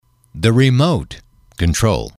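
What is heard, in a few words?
"remote control", English, male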